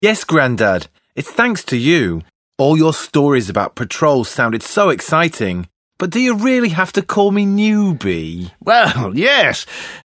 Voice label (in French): audio guide jeune